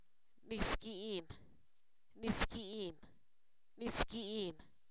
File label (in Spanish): caldo, Zapoteco, san miguel albarradas; alimentos